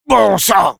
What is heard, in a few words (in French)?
negative vocalization; Sniper; fr